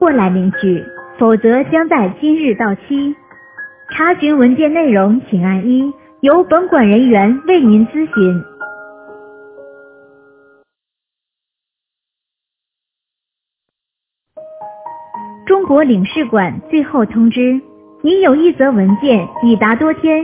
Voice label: voicemail